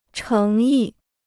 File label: "诚意", Chinese